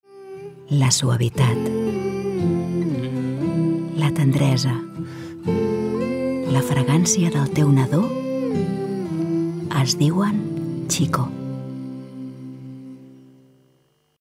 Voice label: female catalan baby comercial; ideasonora